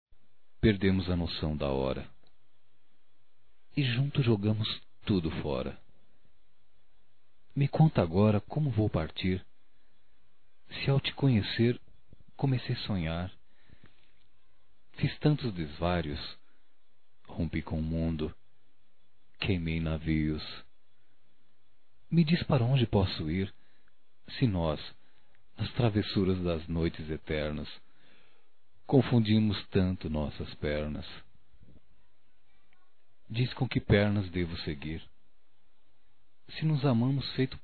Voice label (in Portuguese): Romantica Poesia Masculino, Telemensagens; Românticas